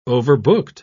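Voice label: "overbooked", British English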